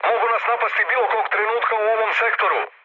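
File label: Serbian chat; War Thunder; speech srb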